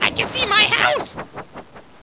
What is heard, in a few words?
annoyed; goblin zeppelin